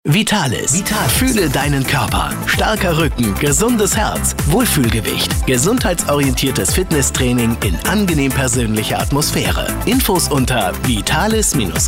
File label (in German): Funkspot; Vitalis; Processed